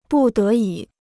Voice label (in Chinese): "不得已", Chinese